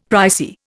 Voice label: "pricey", Indian; Dictionary